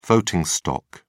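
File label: "voting stock", British English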